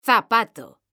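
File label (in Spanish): "ZAPATO", Spanish, female; mekanta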